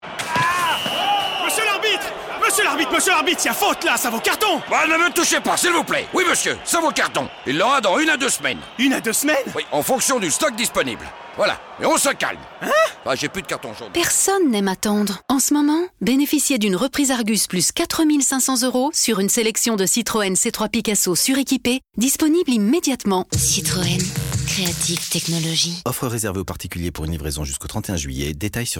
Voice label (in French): RADIOS COMEDIE OFF; CITROEN; C3 PICASSO